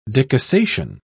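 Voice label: "decussation", British English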